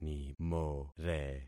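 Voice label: "nimore", concatenated syllables; Affixing experiment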